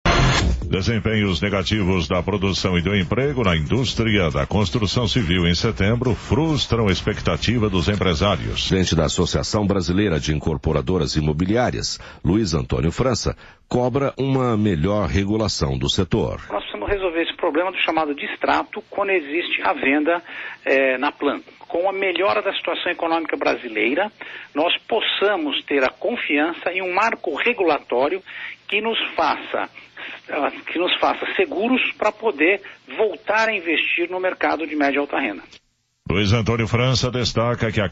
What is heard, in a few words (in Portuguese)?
entrevista na radio; joven pan